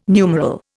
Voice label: "numeral", Indian

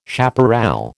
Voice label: "chaparral", American

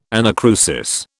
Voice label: "anacrusis", British

